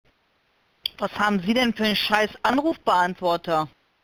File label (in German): Blöde Ansage